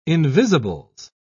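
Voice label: "invisibles", British English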